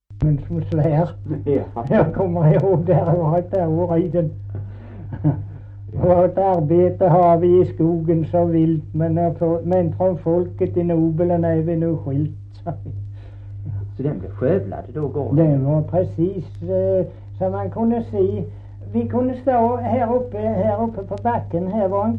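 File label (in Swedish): Kristianopel, Swedish dialect; svenska sagor; Folkminnen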